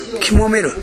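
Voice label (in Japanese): "kimomeru", 南山形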